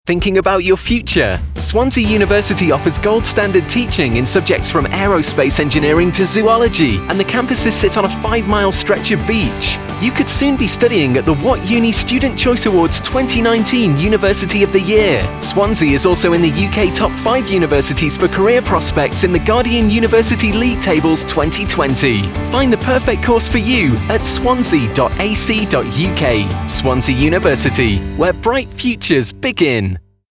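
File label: radio advert, male; Swansea University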